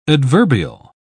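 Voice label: "adverbial", British English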